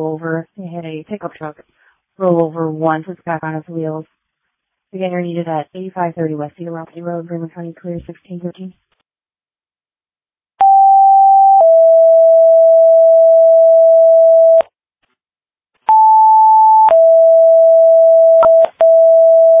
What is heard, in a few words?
Fire, dispatch; Janesville